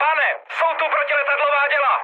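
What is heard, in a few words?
damaged aa, Czech, mood high; speech; War Thunder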